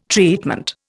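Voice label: "treatment", Indian English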